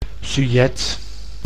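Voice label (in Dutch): "sujet", Dutch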